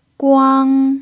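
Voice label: "guang" first tone, pinyin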